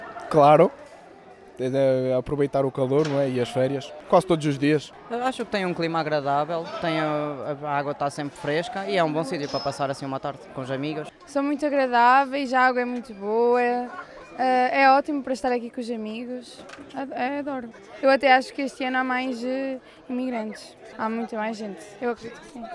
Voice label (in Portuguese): voz pop jovens; macedo de cavaleiros